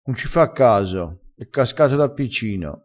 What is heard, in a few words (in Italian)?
"e cascato", vocabolario dialettale